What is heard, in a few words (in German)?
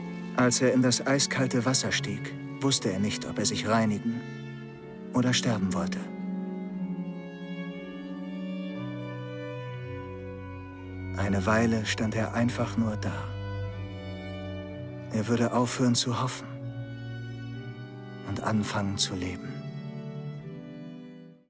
Synchron Erzaehler; Verlorenes Illusionen